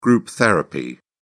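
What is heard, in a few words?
"group therapy", British English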